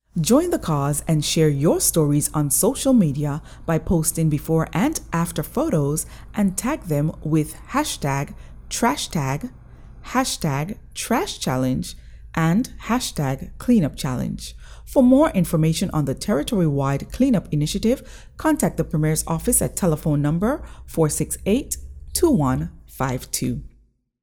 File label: trash challenge voice over